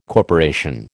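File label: "corporation", American English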